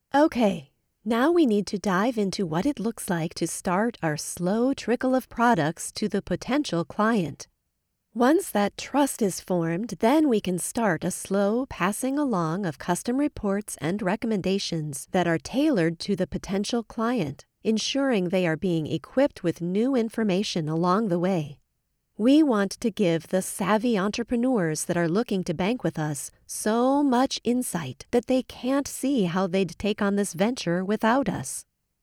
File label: E learn Financial